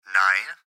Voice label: Gps Voice, Male